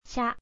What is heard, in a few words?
"sha", kana